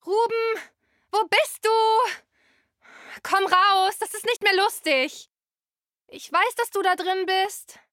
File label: Scared